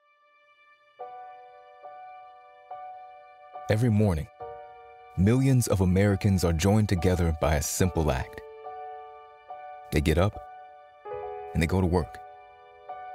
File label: Narration